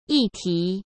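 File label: "议题", Mandarin Chinese; hsk; chinese-flashcards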